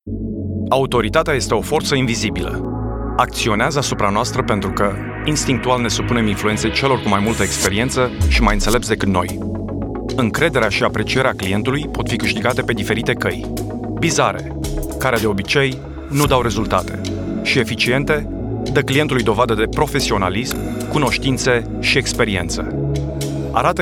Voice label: Romanian